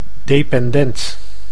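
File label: "dependent", Dutch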